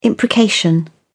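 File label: "imprecation", British English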